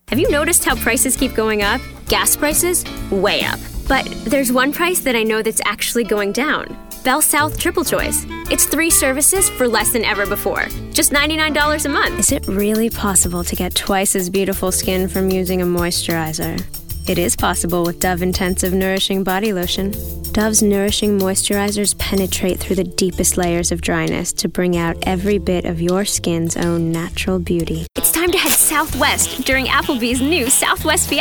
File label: COMMERCIAL